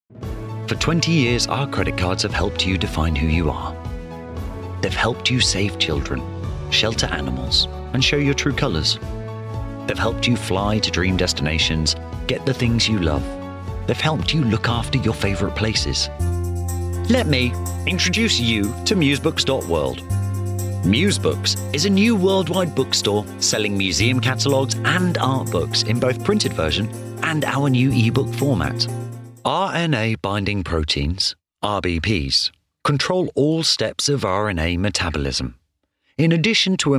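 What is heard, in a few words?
Corporate, British Voiceover; Narrator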